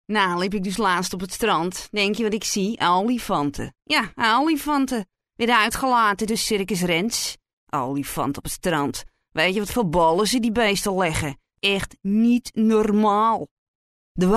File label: dutch dialect